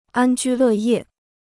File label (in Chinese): "安居乐业", Chinese